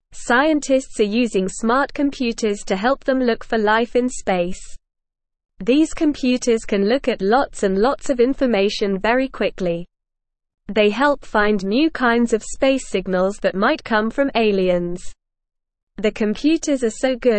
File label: English NORMAL Reading; English Newsroom; Smart Computers Help Scientists Look for Space Life